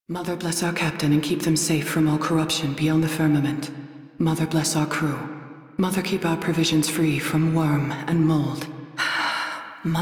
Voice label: Crew Idle, Female; Railjack Crew; New Loka